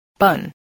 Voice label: "bun", spelling